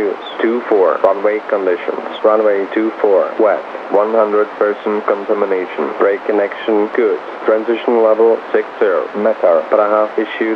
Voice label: LKPR ATIS 122,15MHz